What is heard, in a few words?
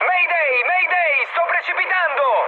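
Italian aircraft destroyed mood high, speech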